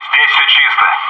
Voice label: "all clear here", bot; maxpack